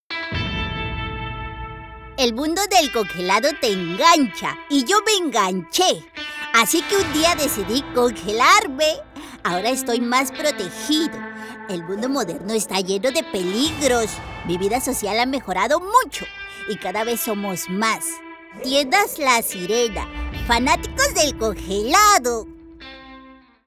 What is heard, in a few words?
CARTOON